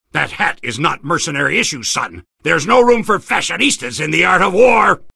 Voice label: soldier hat taunts

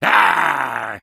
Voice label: soldier tank warcry; video games; source engine; tf; taunts

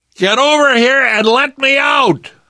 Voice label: call for rescue, survivor voice

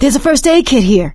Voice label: spot first aid, survivor voice; source engine; left 4 dead 2; producer

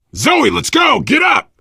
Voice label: revive friend loud, player survivor voice biker; source engine; left 4 dead 2

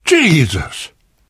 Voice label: violence awe, survivor voice

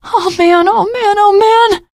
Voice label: reaction negative, teen girl; survivor voice

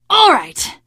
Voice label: taunt, teengirl; voice; source engine; left 4 dead 2; survivor

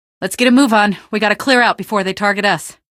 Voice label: move on, male; hl2; canals; Source engine

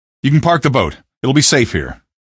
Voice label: gunboat park boat, male; Source Engine; video game; canals

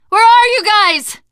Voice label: lost call, teengirl; survivor voice

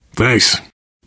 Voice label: thanks, player survivor voice biker; source engine; left 4 dead 2

